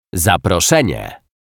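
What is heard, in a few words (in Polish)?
"zaproszenie", Polish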